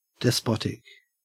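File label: "despotic", Australian English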